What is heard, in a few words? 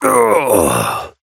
pain low health warning; Operative